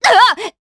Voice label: Vox Damage jp; Glenwys; kingsraid

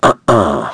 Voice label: Shakmeh Vox Deny, voices heroes en; kingsraid-audio

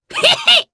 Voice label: Happy, jp; Lakrak; kingsraid

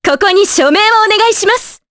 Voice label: Vox Skill, jp; Veronica; kingsraid

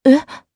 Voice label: Vox, jp; Seria; kings raid

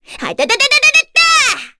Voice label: Vox Skill, kr; Cecilia; kingsraid

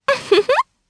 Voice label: Vox Happy, jp; kingsraid

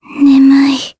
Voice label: Vox Dead, jp; Jane; kings raid

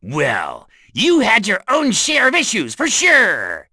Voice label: vox, en; kingsraid; voices heroes

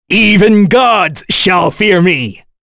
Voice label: Vox Skill, en; Kain; kingsraid; voices heroes en

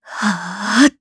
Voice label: Vox Casting jp; voices; heroes; kings raid

